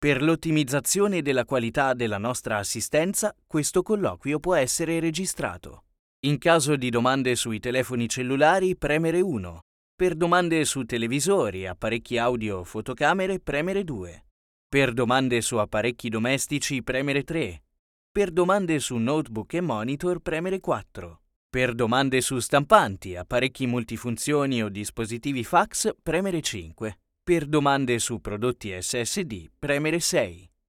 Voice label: italian ivr